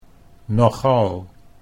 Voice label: "nogal" Dutch

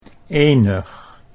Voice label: "enig", Dutch; 'single, singular, unique'

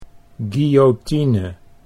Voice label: "guillotine", Dutch

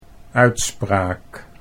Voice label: "uitspraak", Dutch

